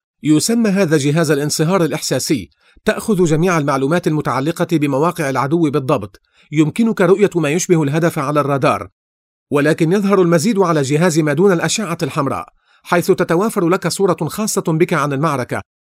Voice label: locutor arabe arabic male voiceover; Arabic male voice over. Lebanon